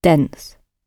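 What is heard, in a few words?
"dense", British English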